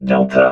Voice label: "delta", robot vox

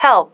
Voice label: "help", female

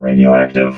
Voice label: "radioactive", robot

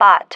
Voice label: "bot", female

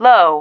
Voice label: "low", female

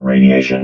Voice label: "radiation", robot

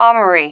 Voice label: "armoury", female

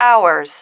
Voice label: "hours", female

